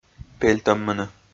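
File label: "пельтӧммыны", Komi, male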